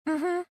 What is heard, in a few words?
Emote Positive